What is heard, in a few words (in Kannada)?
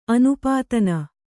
"ಅನುಪಾದಾನ", Kannada